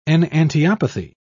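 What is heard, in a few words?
"enantiopathy", British English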